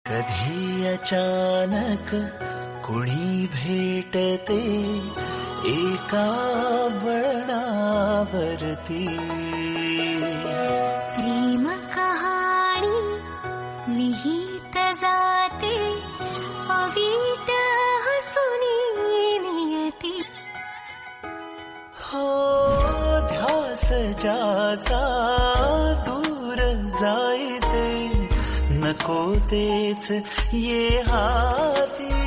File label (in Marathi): motivational sad marathi